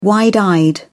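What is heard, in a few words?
"wide-eyed", British English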